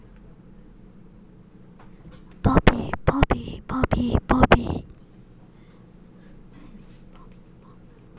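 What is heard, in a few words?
"puppy", spoken word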